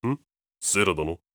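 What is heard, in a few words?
question short, voice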